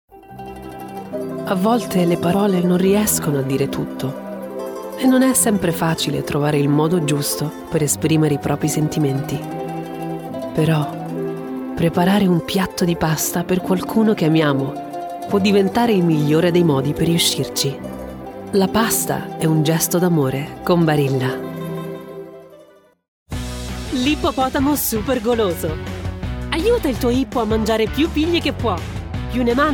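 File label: Italian, female, commercial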